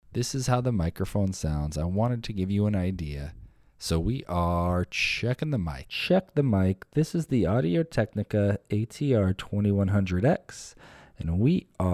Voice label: Audio-Technica ATR2100x USB Sound Test